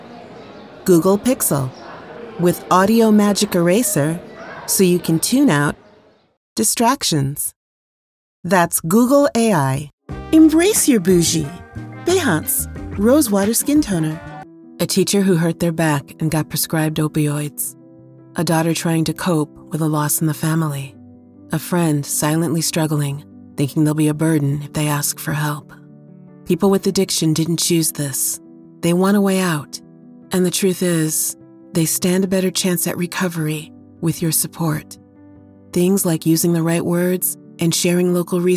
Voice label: commercial